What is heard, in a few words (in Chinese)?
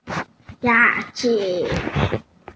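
"ya2 chi3" Mandarin Chinese, female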